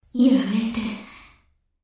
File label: Enemy Voice French Battleship Princess Under Attack